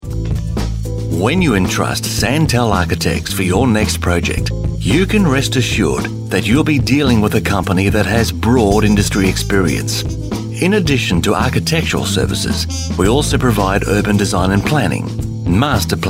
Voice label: on hold